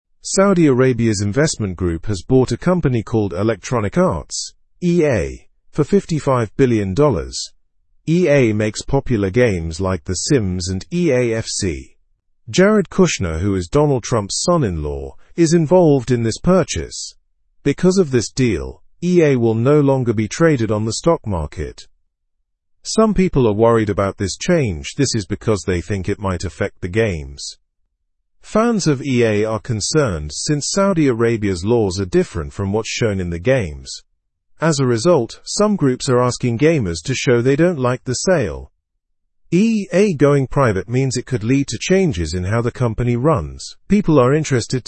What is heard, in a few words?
learn english through news lower intermediate; saudi arabia buys electronic arts for 55 billion